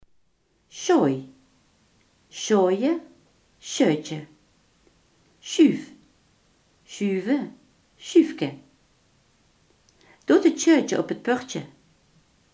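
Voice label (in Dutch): grendel, Limburgse gesproken woordenboeken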